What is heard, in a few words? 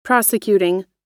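"prosecuting", American English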